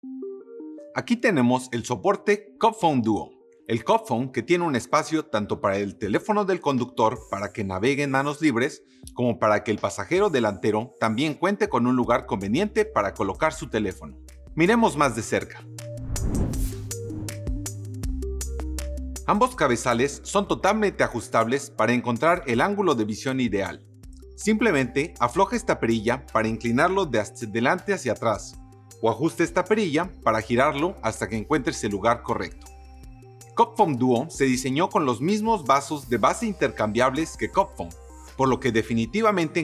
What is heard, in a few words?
Spanish; CupFone Duo; Audio Description